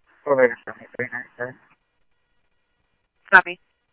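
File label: Simulcast police department, scanner audio; Mesa Simulcast; MESA PD Superstn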